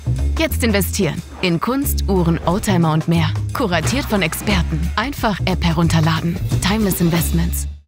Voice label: TVC, Commercial (Werbung), German; Timeless Investments